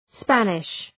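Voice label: "Spanish", English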